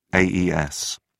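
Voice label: "aes", British English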